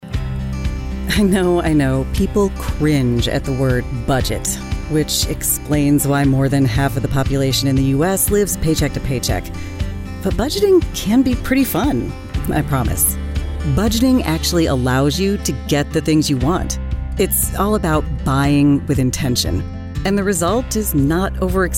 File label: english american e-learning